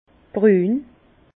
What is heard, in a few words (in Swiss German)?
"brun", Bas Rhin